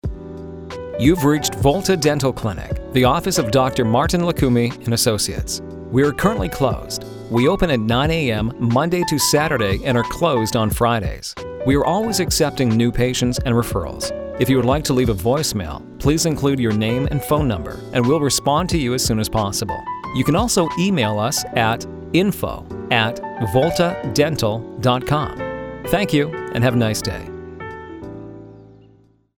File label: Auto Attendant with Music; Volta